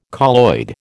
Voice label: "colloid", American